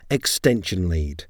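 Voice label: "extension lead", English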